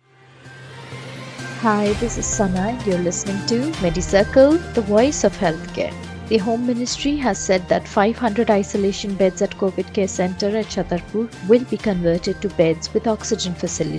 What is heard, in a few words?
english news